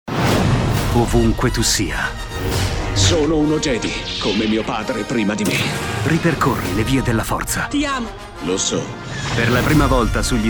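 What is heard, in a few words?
Italian Commercial Adventurous Bold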